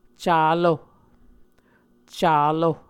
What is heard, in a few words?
"calo", muscogee